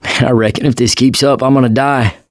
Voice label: going to die, survivor voice mechanic